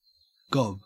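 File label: "gob", Australian English; pronunciations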